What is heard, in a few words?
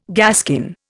"gaskin", Indian